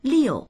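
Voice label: "liu4", Mandarin Chinese; 六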